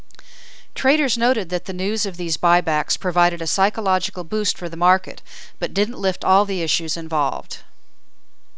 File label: Natural speech